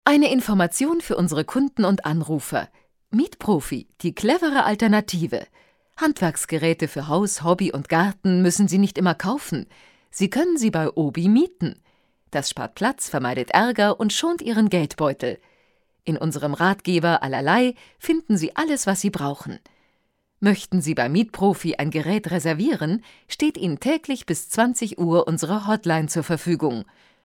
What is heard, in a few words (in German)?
deutsch, Sprecherin, telefonansagen